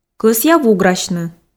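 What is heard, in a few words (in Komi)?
кӧсъя вуграсьны, Komi, female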